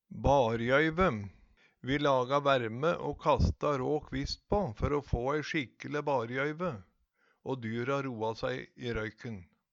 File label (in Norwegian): barjoyve, Numedalsmål; Røykbål for å halde utøy unna dyra.